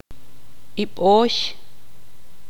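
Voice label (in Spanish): "armadillo", Tz'utujil, female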